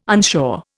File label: "unsure", Indian